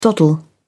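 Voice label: "doddle", British English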